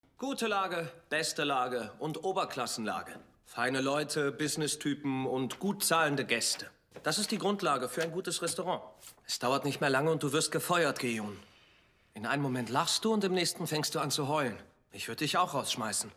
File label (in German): Dramedy erklaerend